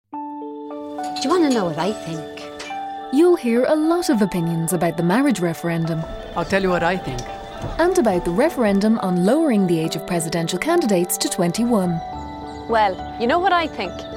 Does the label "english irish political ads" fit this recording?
Yes